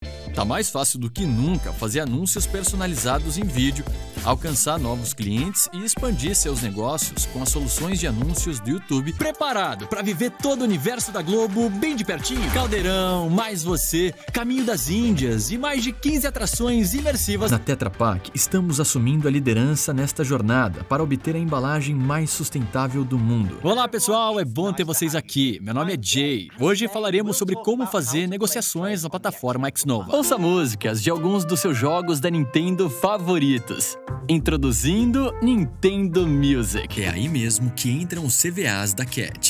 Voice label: portuguese brazilian commercial, Demonstração Comercial